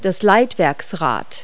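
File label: "tail wheel", English words; Multi-Lingual Talking Picture Dictionary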